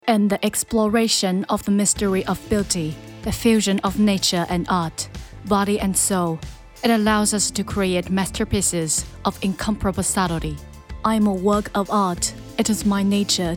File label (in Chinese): 女 英-英音 空灵 时尚 性感 气质护肤化妆品牌宣传片